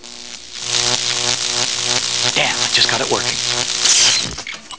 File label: electric sound, damn i just got it working; Electric zapping sound followed by whispered Damn. Just got it working.; Quote from Duckman